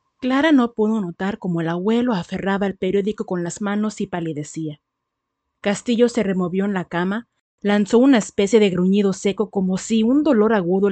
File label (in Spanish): Audio libro, locución; Mala Luna